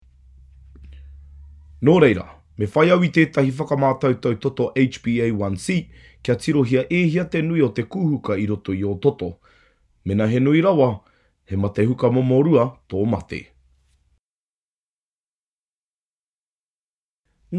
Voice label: maori male